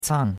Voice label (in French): "cang4", chinois; Cours de Chinois